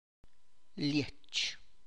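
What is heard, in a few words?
corn silk, Tlacochahuaya Zapotec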